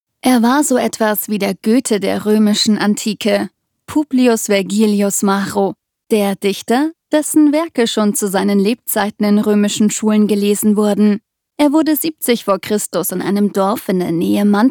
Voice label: german germany female